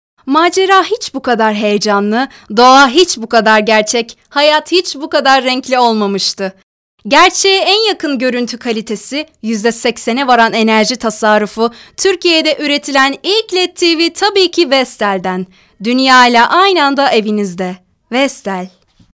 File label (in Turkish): reklam